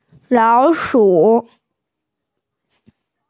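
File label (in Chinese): "lao3shi1", Mandarin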